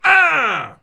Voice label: hurt, pain; player; Dystopia